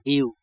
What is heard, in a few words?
sun, Diu